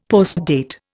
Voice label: "postdate", Indian; Dictionary